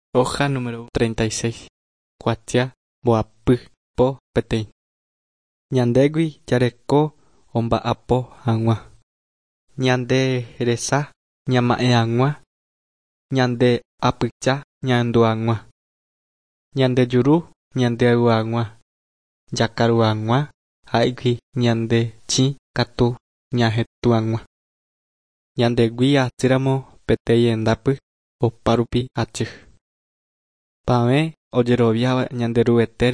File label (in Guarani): guarani mbya; good news and words of life; bible